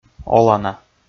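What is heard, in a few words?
"олана", Komi, male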